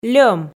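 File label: "льӧм" Komi, female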